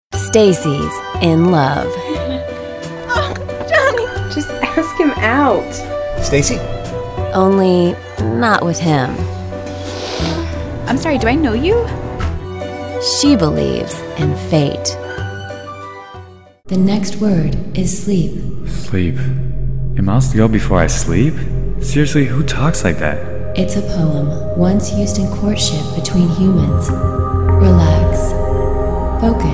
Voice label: trailers women

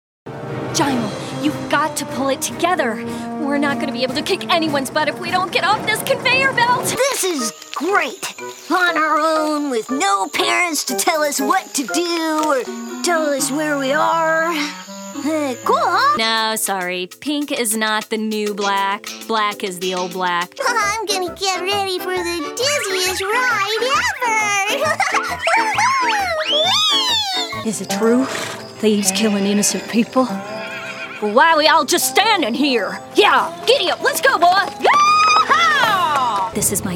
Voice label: animation

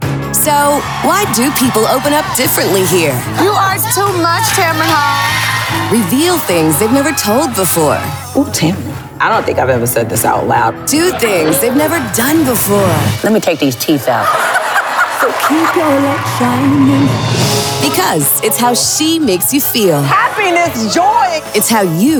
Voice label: promo women

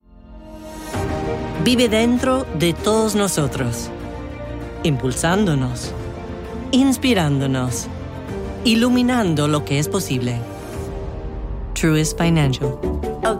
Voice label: languages women spanish commercial

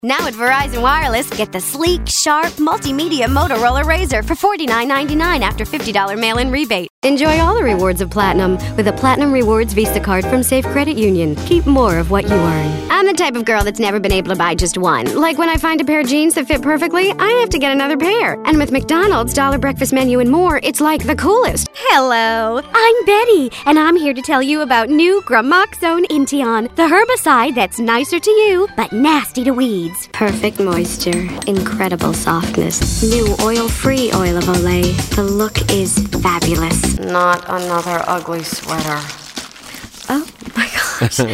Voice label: commercial; commercial women

